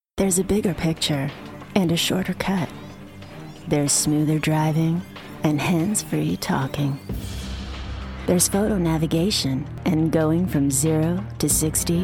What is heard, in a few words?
Commercial Ads